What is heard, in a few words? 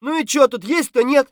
scav mutter, voice; Escape from Tarkov; scav3